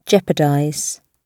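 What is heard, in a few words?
"jeopardize", British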